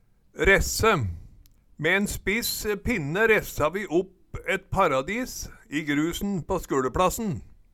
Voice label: "resse", Numedalsmål

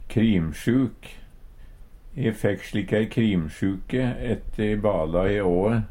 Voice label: krimsjuk, Numedalsmål; Substantiv hankjønn

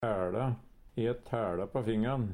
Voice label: taela, Numedalsmål; Adjektiv

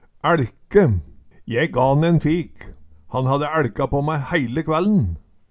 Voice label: "æLke", Numedalsmål

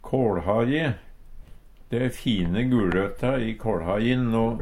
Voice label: kalhaje, Numedalsmål; ein kåLhaje; Substantiv hankjønn

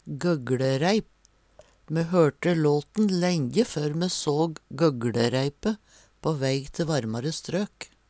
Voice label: gogglereip, Numedalsmål; gøgLereip

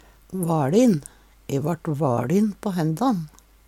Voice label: vaLin, Numedalsmål; E vart vaLin på henndan.

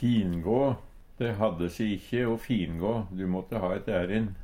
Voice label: "fingå", Numedalsmål; De hadde se ikkje å fingå, du måtte ha eit ærin.

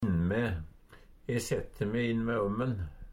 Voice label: innme, Numedalsmål; inntil

